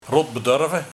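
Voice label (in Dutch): "Rotbedorve", dialect; Heel erg verwend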